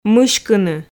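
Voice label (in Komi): "мыськыны", Komi, female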